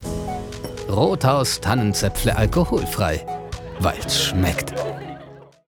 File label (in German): WERBUNG; Rothaus Tannenzaepfle Alkoholfrei